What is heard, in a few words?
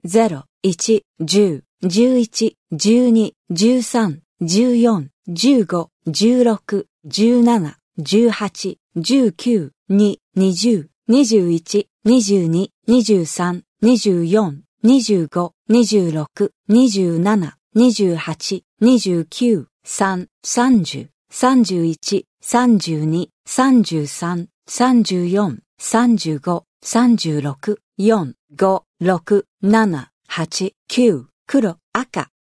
Dealer Voice, sk, Roulette; real Dealer European Roulette Vintage; ja